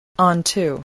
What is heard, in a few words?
"onto", voice, spelling